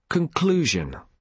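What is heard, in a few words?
"conclusion", British English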